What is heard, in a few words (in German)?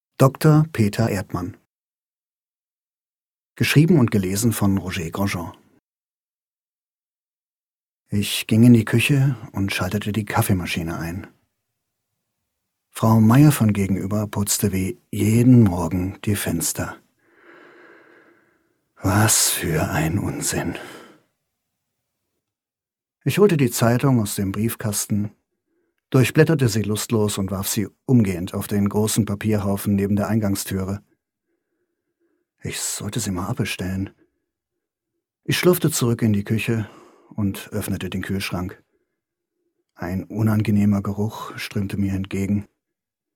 Hoerbuch; Gedanken An Stoesse; Doktor Peter Erdmann